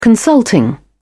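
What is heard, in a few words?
"consulting", British English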